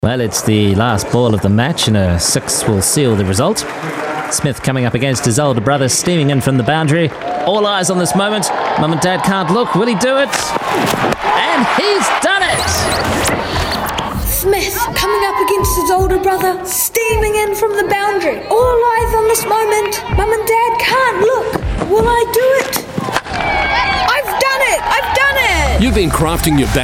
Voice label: CRICKET COMMENTATOR